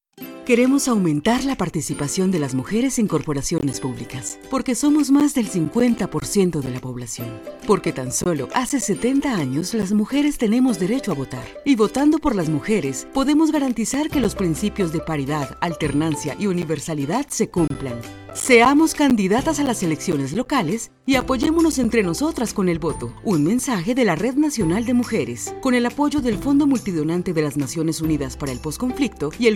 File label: Female, Spanish (LA), Colombia